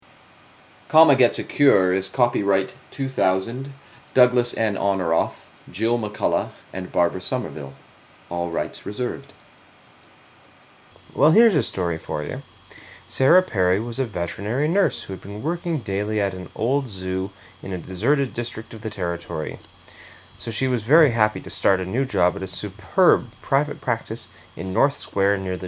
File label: BC Vancouver Common Text, Canada